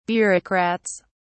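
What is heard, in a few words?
"bureaucrats", British English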